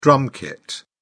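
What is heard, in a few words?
"drum kit", British English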